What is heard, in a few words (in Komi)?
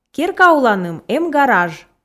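"керка уланым эм гараж", Komi, female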